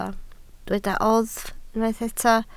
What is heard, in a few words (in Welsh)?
"oddf" Welsh